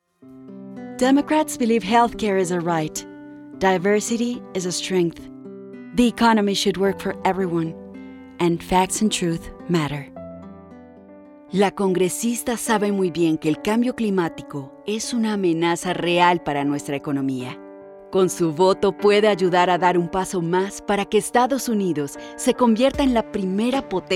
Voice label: Political Bilingual